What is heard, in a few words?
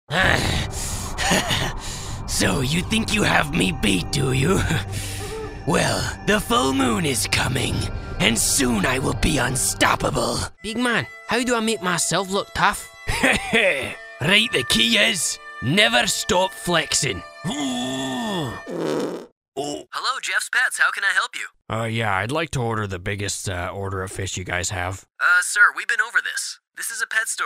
Character Demo